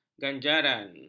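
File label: "ganjaran", Malay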